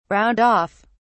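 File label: "round off", British English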